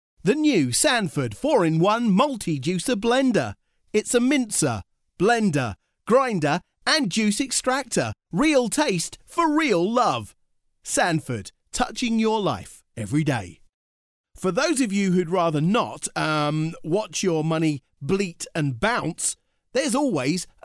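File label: English, British, male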